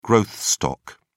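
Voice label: "growth stock", British English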